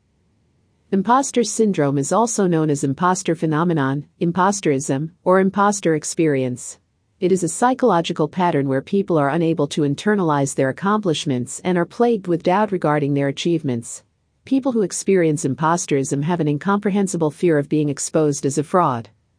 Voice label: female voice; Impostor Syndrome; PLR Audio Articles

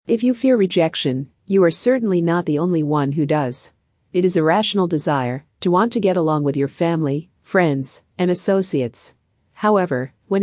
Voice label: Female Audio; Rejection

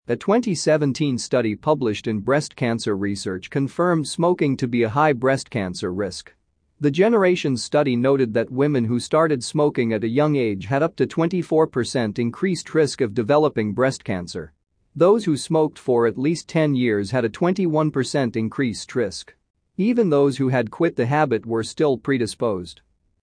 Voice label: Male Audio; Breast Health

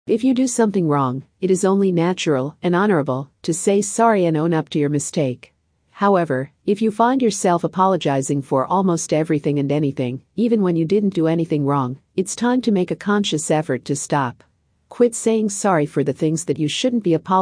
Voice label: Voice Female; Imperfection

